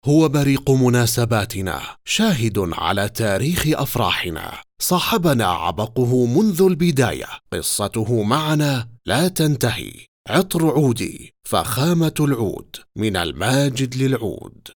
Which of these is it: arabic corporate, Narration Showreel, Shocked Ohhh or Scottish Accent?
arabic corporate